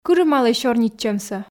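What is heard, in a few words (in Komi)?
кырымалӧй сёрнитчӧмсӧ, Komi, female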